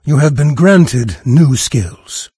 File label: level up, NARRATOR, en-US; Fate The Traitor Soul